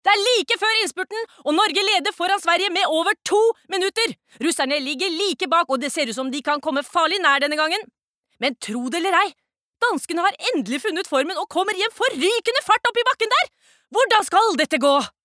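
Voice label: Norwegian dub commentator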